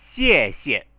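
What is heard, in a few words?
"xiexie" Chinese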